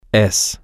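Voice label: you are permanent, Spanish, male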